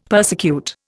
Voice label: "persecute", Indian; Dictionary

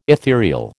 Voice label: "etherial", American

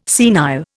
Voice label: "senile", Indian

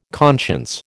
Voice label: "conscience", American

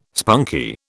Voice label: "spunky", British

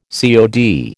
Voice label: "COD", American

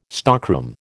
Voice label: "stockroom", American

